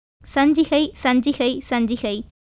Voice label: Tamil